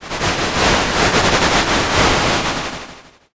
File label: whisper